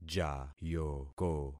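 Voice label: "jayoko", concatenated syllables; Affixing experiment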